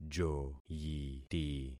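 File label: "joyiti", concatenated syllables; Affixing experiment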